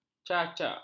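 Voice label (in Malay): "cacak", Malay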